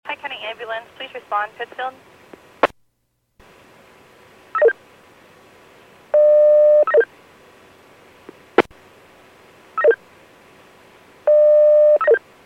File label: PAGING